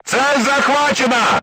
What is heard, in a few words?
voice message target lock, crew tanks russian